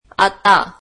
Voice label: marathi now